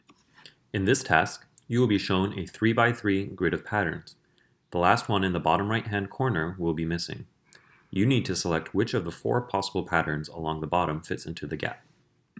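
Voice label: instructions, English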